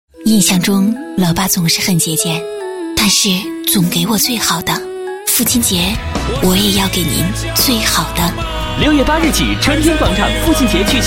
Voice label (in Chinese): 女 广告; 春天广场